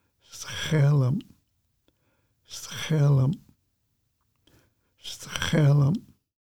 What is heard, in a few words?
Sword Fern Musqueam name pronunciation